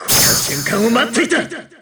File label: objection jp; akihiko